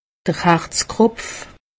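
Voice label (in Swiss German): "harzkropf", Alsatian; medecine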